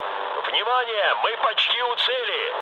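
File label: near waypoint, mood med, Russian; War Thunder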